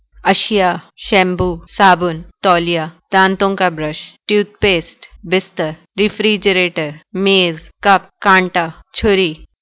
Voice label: Urdu objects